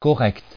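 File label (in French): "correct" French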